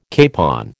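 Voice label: "capon", American English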